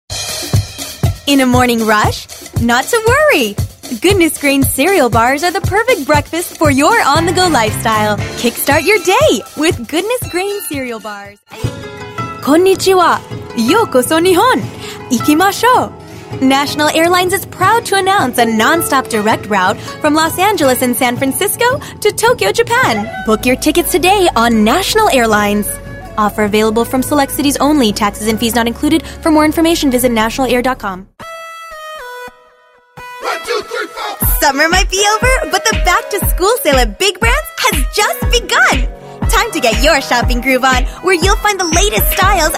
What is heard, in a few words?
female voice; voice talent; television and radio commercials, industrials, cd-roms, cartoon